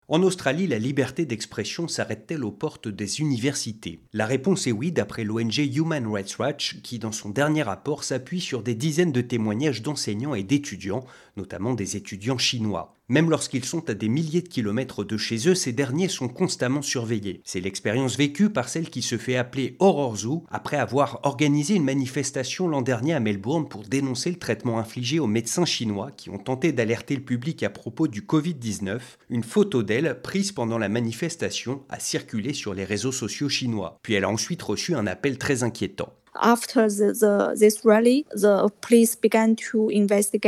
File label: french; SBS French; Les universités australiennes sous influence chinoise